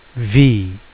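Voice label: name "v", 英語アルファベットの文字の名前; phonics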